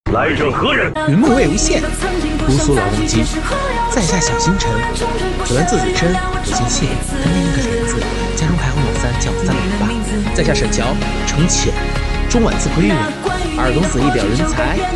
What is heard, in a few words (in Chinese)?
公子音少年音青年音合集